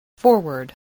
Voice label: "forward", English voice, spelling